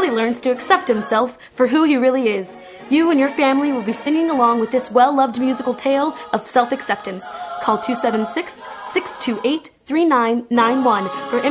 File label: Honk Commercial; Radio Commercial; Barter Theatre's HONK!